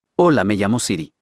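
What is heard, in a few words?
Mexican Spanish; es-MX; iOS Sounds; angel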